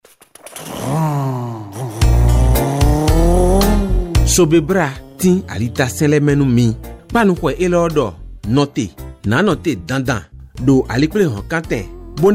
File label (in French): CODE DE ROUTE FON